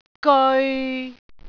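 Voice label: "geoi6", Cantonese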